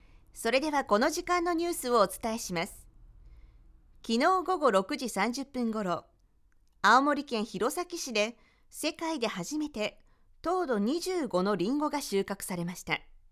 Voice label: news, voice